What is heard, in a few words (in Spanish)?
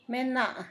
mujer, Zapoteco; Humano; San Pedro Mártir; INALI